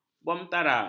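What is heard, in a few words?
"bomantara", Malay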